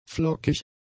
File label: "flockig", German; adjectives